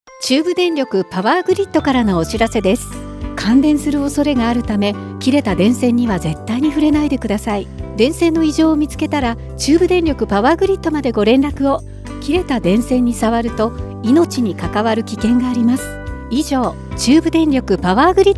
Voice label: female E